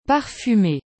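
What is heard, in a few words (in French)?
"parfume", French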